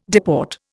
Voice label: "deport", Indian